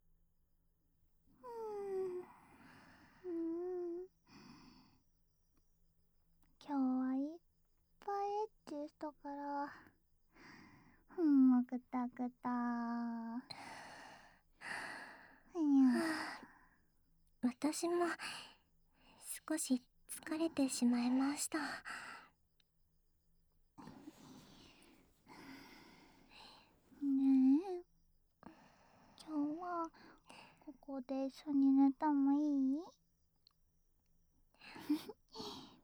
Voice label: 三人仲良くピロートーク♪

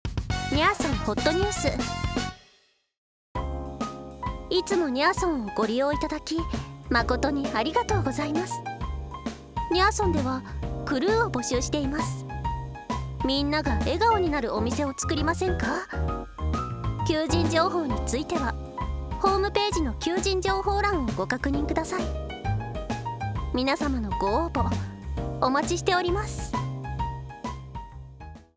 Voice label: にゃ～そん店内放送 クルー募集編

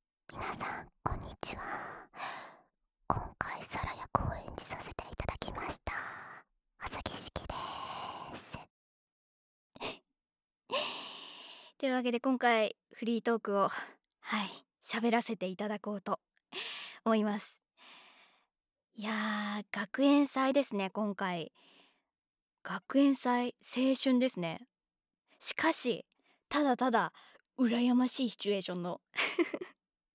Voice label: フリートーク

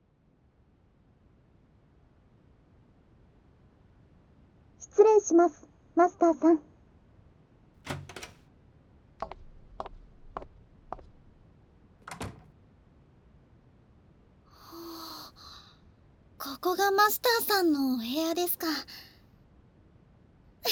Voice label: 耳かき, ASMR; エルの耳かき; 左右反転

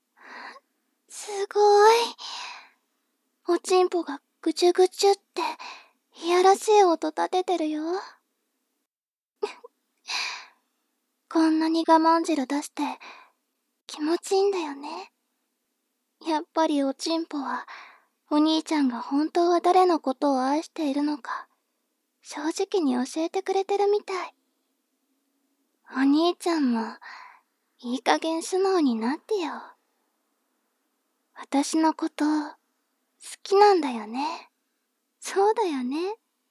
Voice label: 妹 台詞

